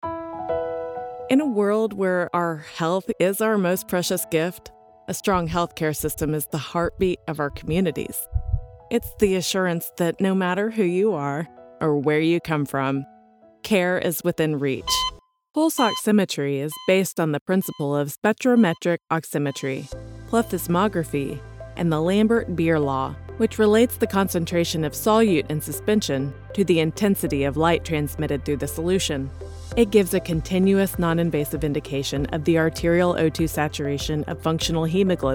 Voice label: MEDICAL NARRATION